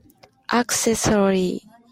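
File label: "accessory", Japanese speaker of English, female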